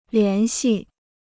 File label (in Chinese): "联系", Chinese